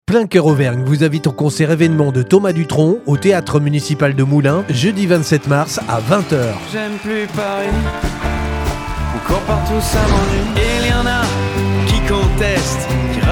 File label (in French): promo; PLEIN COEUR; MOULINS